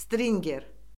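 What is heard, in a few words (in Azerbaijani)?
"stringer", Azerbaijani; Orfoqrafiya Lüğəti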